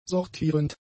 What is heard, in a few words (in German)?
"sortierend", german; adjectives